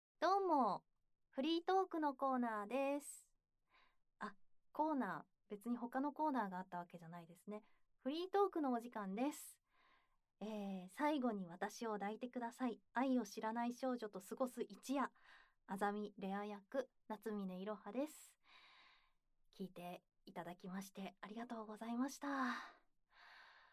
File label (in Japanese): フリートーク